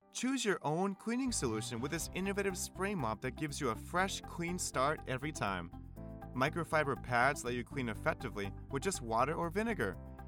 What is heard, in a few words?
Corporate Cleaning w music